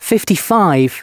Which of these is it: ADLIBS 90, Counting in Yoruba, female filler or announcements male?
announcements male